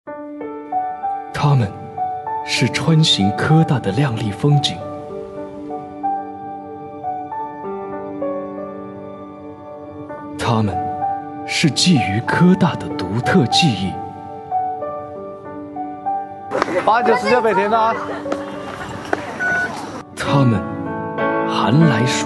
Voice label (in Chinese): 公益广告风格